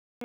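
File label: Dispatch, p25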